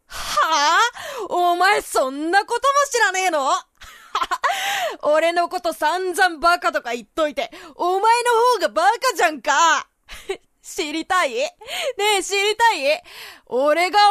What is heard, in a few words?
boy; ウザい少年; Voice